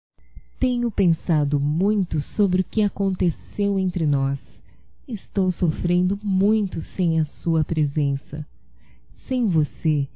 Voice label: RECONCILIAÇAO (F); Românticas

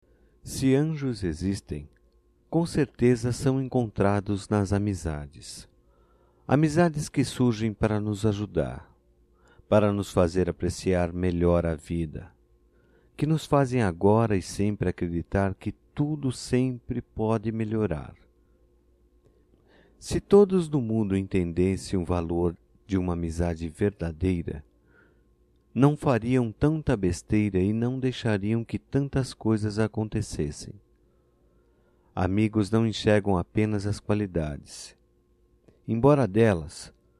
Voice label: M; DIA DO AMIGO (ANJO ); Telemensagens 2017; Datas Mistas

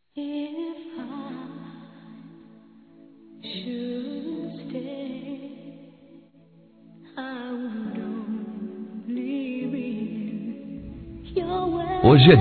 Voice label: Casamento Geral Masculino; Telemensagens 2017; Aniversários

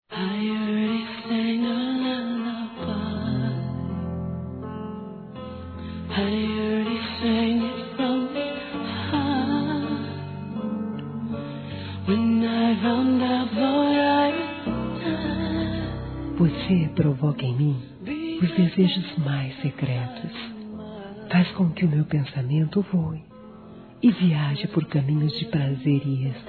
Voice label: EROTICA, fem; Telemensagens; Sensuais

